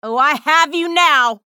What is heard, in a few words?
chatter positive; athena; frag